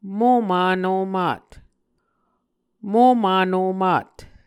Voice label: "moman omat", Muscogee